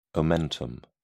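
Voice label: "omentum", English, British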